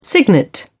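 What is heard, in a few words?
"cygnet", British English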